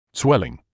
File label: "swelling", English